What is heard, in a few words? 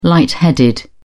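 "light headed", English, British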